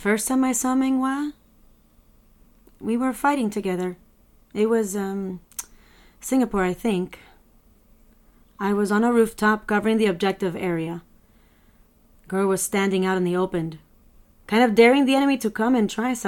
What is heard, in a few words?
Video Game Audition